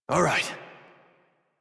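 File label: horse start adult, English; Warframe; Duviri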